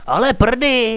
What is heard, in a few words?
negative, radio